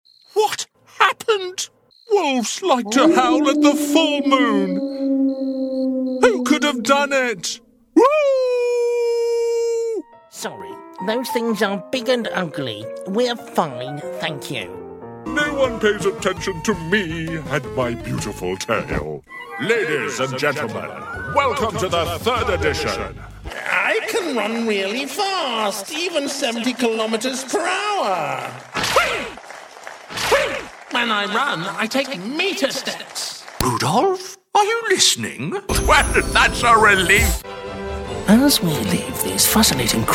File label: character